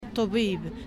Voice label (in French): "toubib", prononciation